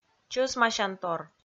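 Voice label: "чӧсмасянтор", Komi, female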